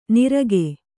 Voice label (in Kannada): "ನಿರಗೆ", Kannada